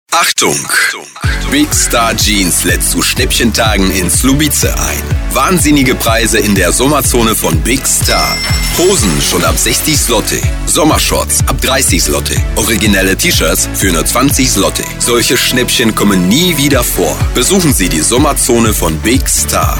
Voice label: commercial